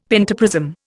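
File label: "pentaprism", Indian English; Dictionary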